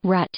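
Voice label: "rut", English; noun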